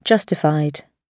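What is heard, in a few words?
"justified", British English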